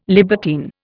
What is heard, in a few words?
"libertine", Indian